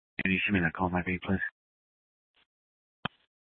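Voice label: Police Department Dispatch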